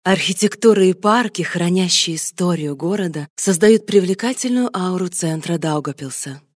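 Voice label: Russian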